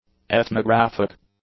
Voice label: "ethnographic", English; adjectives